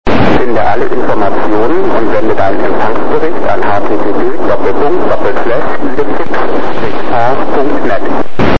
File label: bo-47 idefix message deutsch